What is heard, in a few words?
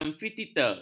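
"amfiteater", Malay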